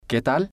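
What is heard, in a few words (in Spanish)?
"qué tal", male, Spanish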